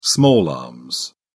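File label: "small arms", English, British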